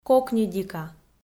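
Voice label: "кокньыдика", Komi, female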